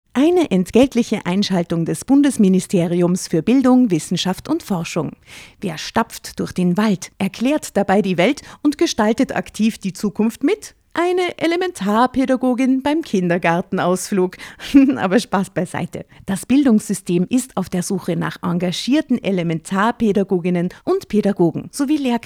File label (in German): Drama AD Pädagoginnen